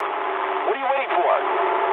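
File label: English attack position mood low; speech; War Thunder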